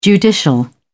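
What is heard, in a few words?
"judicial", British English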